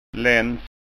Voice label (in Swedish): "lanns", skanskaord